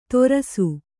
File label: "ತೊರಸು", Kannada